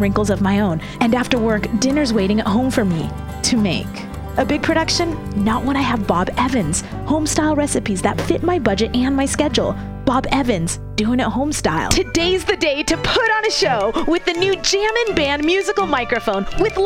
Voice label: Commercial English